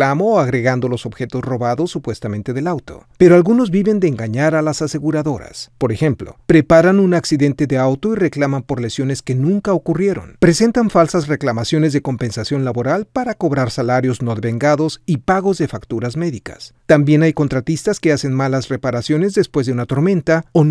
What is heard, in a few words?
Insurance Fraud Radio Spanish; NICB